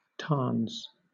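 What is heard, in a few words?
"tarns", British English; pronunciation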